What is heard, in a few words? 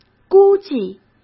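"估计", Mandarin Chinese; chinese-flashcards; hsk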